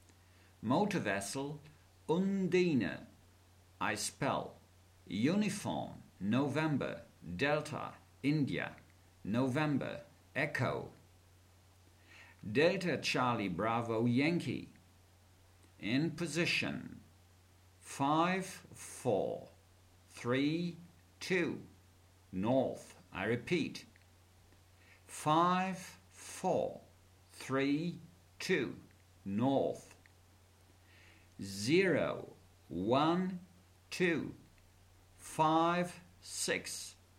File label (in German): Seefunktext